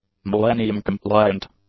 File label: "millennium-compliant", English; adjectives